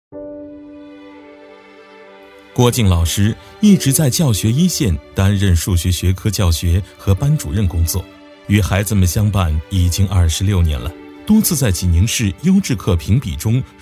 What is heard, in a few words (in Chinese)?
男 人物专题 最美教师; 《最美教师 郭老师》; 男26-大气力度 质感磁性